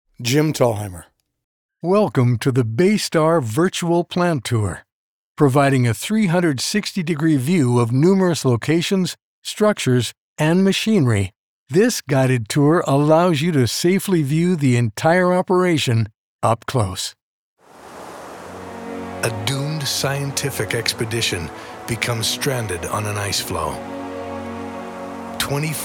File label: Narration